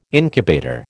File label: "incubator", American